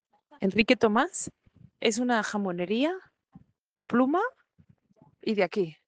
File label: Voice message